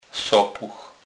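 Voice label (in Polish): "sopuch", Kurpie dialect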